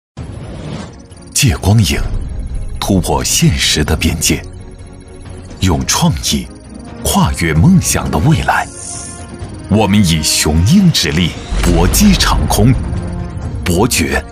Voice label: 男 大气宣传片

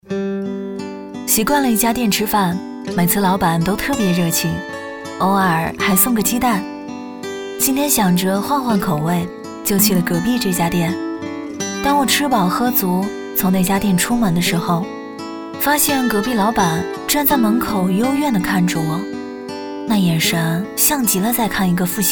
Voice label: 女 走心旁白 抖音视频

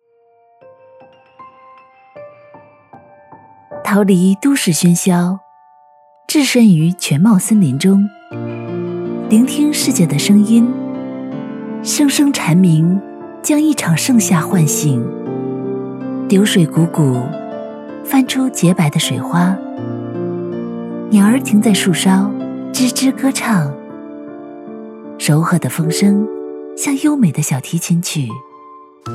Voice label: 女,素人独白,自然

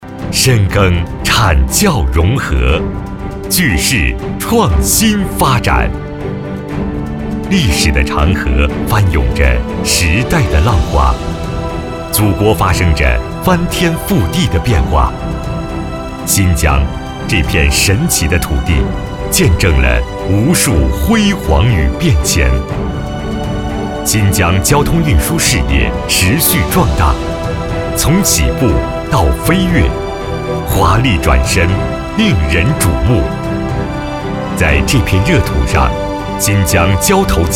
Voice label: 男 宣传片 传统大气; 新疆交投

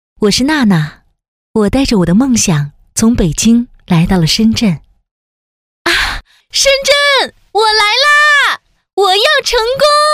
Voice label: 女, 微电影 配音 女儿

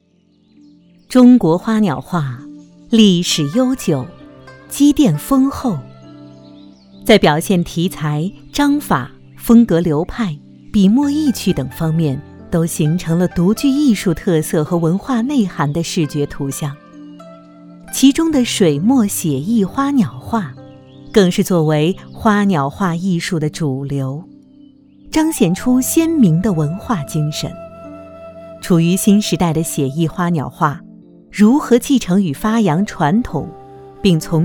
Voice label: 女 纪录片 古典 艺术水墨欣赏

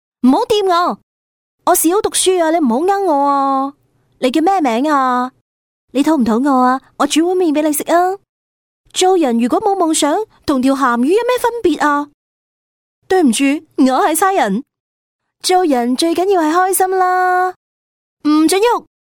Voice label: 粤女 粤语 角色

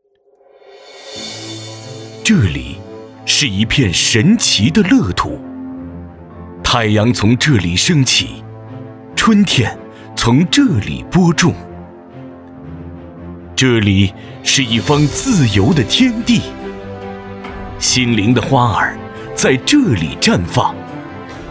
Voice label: 男 专题 磁性大气 幼儿园; 新民幼儿园

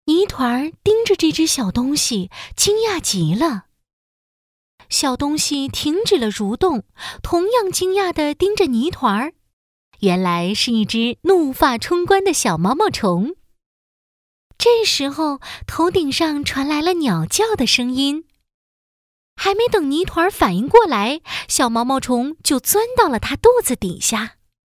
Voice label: 女 有声读物 动画旁白

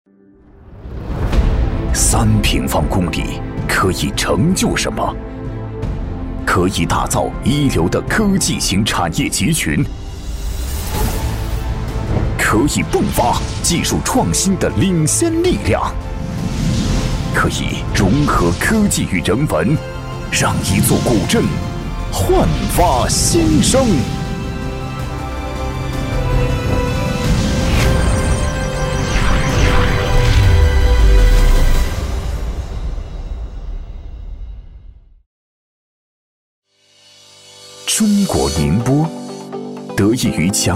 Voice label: 男 大气专题; 宁波交投参考音

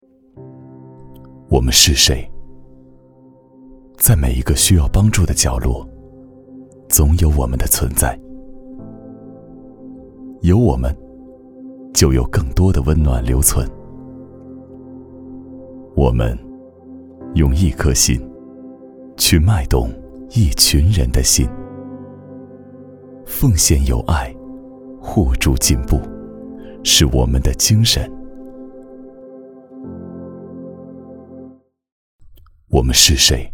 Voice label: 男 旁白 走心 温馨

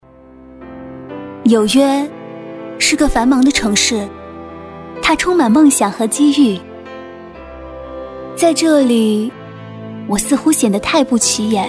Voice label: 女, 旁白; 佳洁士放肆露齿

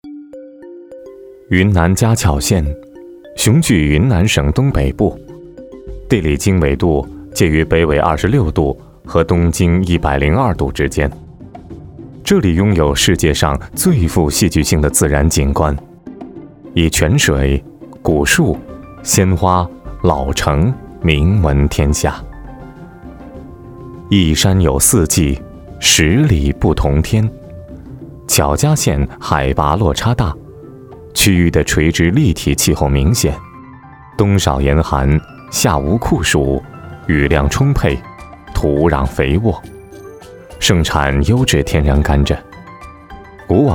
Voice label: 男 专题 云南 舌尖感觉

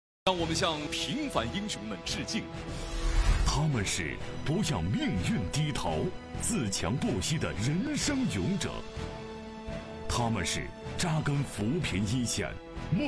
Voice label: 男 全国脱贫攻坚奖

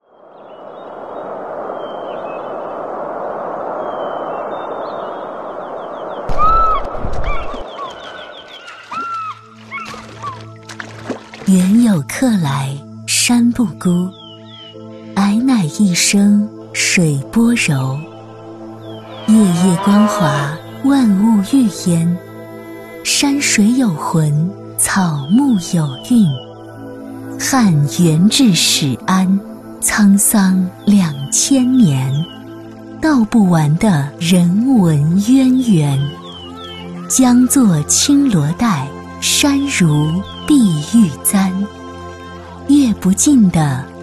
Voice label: 女, 形象片; 桂林市文化广电和旅游局出品; 这就是桂林

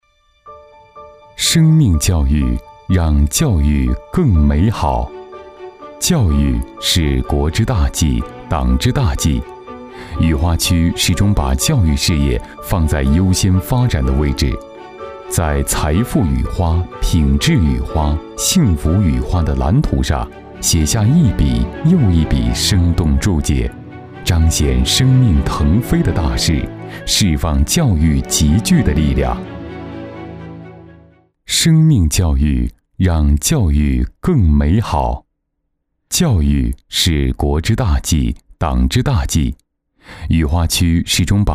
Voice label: 男 教育宣传解说

